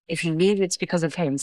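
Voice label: Voice Acting es, female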